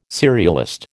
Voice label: "serialist", American